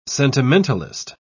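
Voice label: "sentimentalist", British English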